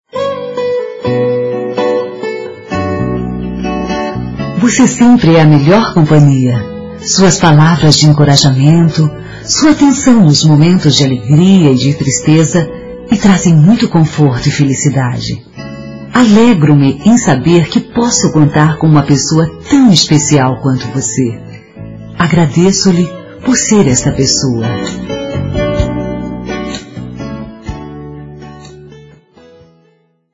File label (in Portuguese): mensagem para amigo(a) F; telemensagens